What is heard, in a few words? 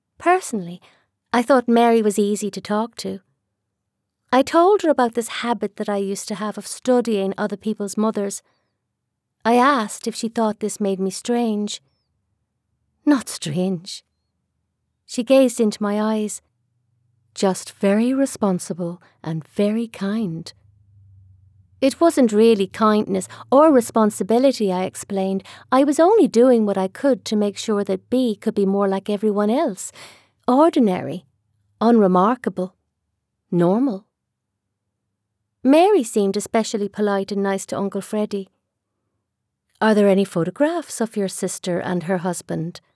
Audiobook Sample